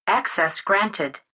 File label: Female Voice Access